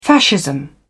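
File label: "fascism", British English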